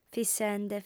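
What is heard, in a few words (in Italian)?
"fissende", lingua sarda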